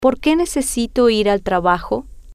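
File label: Porque Necesito Trabajo, Spanish, female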